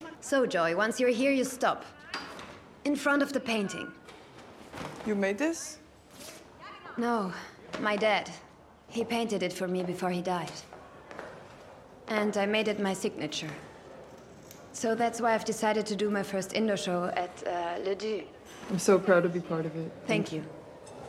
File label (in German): Paloma English, La MAISON (Auszug)- englisch